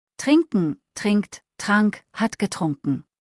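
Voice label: "trinken", German; verben kurzliste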